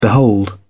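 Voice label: "behold", British English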